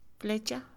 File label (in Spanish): flecha, Mixe, Mujer; INALI